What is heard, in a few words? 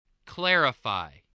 "clarify", English, voice